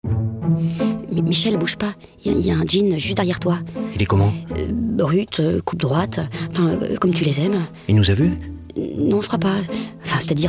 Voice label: RADIO; AVENUE DES MARQUES